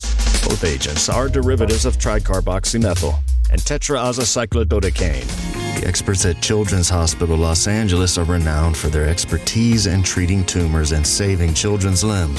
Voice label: english american medical narration